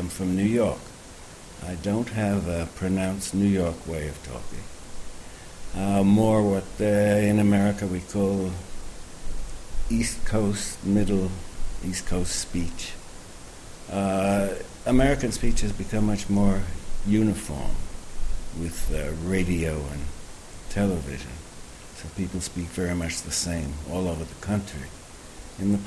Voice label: US pronunciation